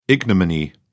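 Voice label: "ignominy", English, British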